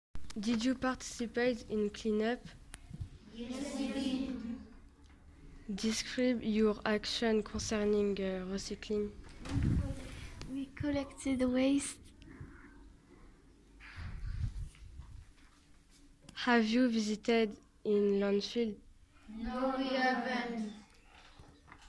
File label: Interview pupils